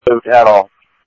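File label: Sheriff Lake Patrol, scanner audio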